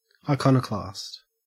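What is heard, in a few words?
"iconoclast", Australian English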